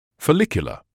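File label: "follicular", British English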